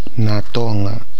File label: "natoga", Nafe (Kwamera); wind direction: east wind